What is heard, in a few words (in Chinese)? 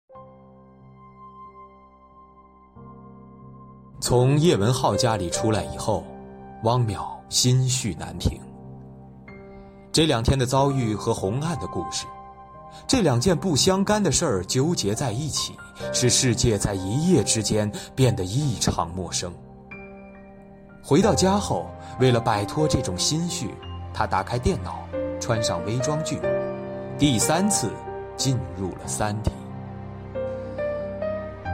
小说片段